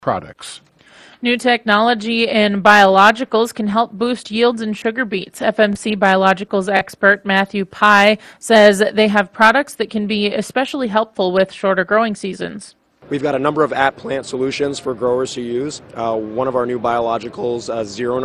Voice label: air check